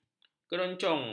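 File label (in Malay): "keroncang", Malay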